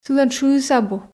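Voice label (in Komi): сылӧн шыыс абу, Komi, female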